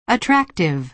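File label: "attractive", American English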